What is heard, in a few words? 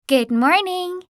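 "morning", English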